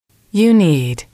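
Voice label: you need, English, female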